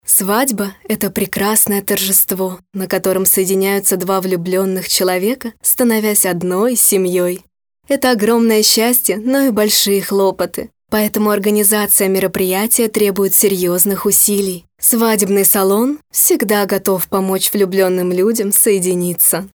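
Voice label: Russian female Inspiration, vo examples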